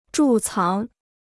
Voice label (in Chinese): "贮藏", Chinese